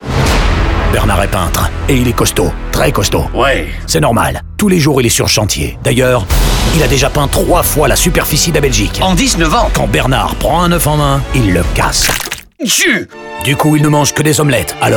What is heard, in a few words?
radio fr vo; cvi gamme; peintre